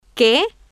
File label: "que", Spanish